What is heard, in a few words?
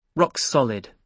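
"rock solid", British English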